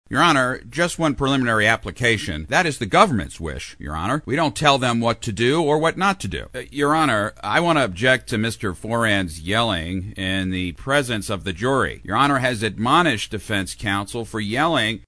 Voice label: Legal Counsel, Real & Honest; animation